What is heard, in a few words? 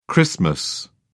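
"xmas", British English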